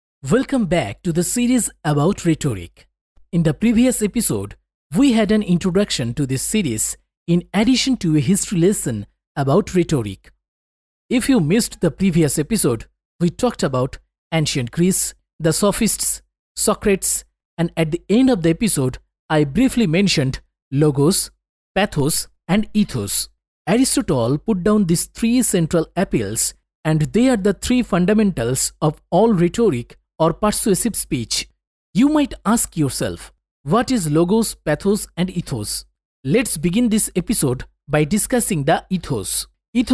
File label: E-learning, English